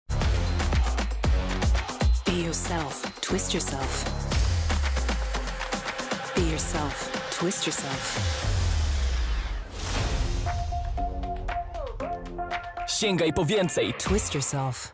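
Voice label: English UK, female, advert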